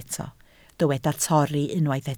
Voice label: "torri", Welsh

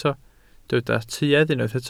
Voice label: "tuedd", Welsh

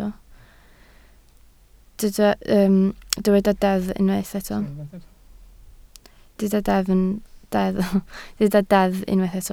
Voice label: "deddf", Welsh

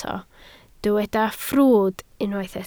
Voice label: "ffrwd", Welsh

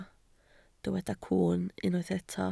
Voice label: "cwwn", Welsh

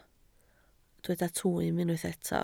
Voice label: "twym", Welsh

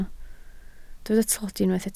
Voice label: "tlodi", Welsh

